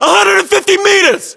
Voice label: threat distance 150, localized english battlechatter us